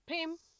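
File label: "pim", Nigerian Pidgin, female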